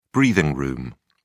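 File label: "breathing room", British English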